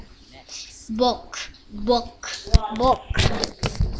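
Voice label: "book", spoken word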